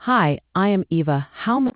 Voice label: conversation